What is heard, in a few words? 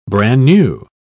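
"bran-new", British English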